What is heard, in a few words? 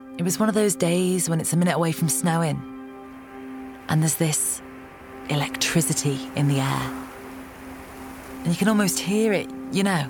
Narration